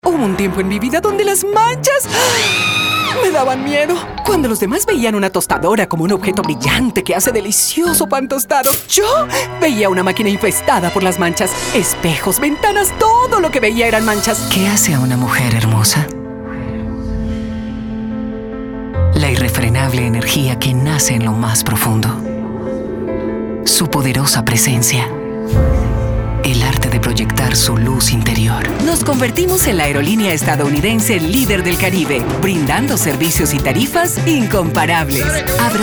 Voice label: spanish commercial